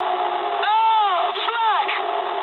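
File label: English damaged aa mood low; War Thunder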